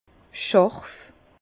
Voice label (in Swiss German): "scharf", Bas Rhin; vin; coupant